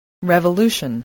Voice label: "revolution", English